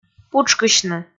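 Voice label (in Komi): "путшкысьны", Komi, female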